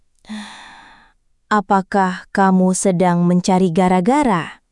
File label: indonesian phrases